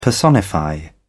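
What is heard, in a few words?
"personify", British English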